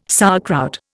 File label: "sauerkraut", Indian